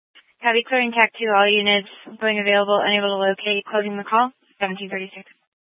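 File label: Incident Tac 2, scanner audio recording, Grand Junction, Mesa County, Colorado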